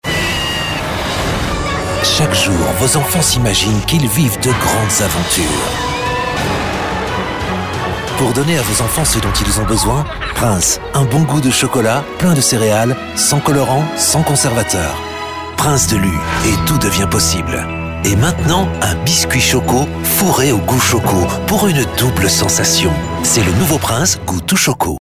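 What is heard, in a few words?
French commercial; PrinceLU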